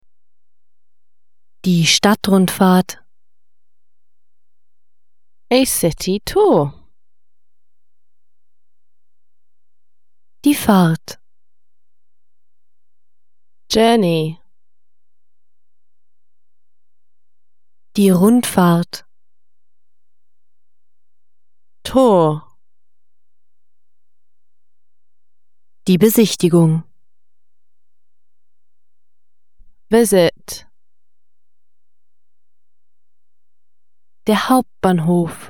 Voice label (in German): englisch basis vokabeln; superlearning lernen mit yoga